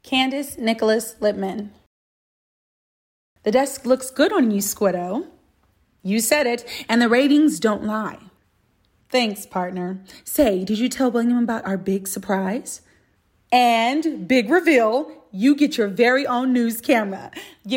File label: animation; Innovative LA